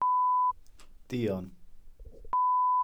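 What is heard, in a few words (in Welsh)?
"duon", Welsh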